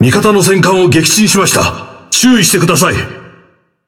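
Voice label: Speech Destroy Ally Battleship, Japanese; World Of Warships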